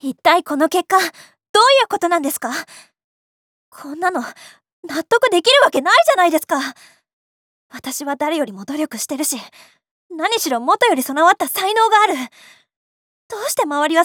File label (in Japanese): 女子A